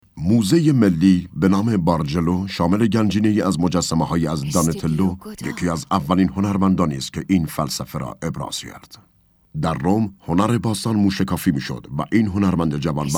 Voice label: documentary